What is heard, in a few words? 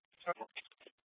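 police dispatch, Scottsdale PD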